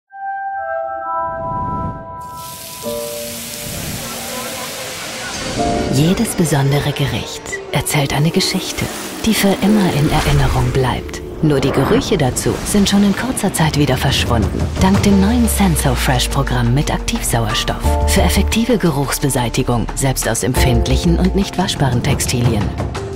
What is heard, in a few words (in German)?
Siemens iSensoric Deutsche Version